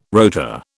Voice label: "rotor", British

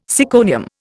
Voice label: "syconium", Indian

